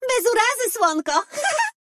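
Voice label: Polish; 回声（守望先锋2）/终极技能; 波兰语; Bez urazy, słonko! (śmiech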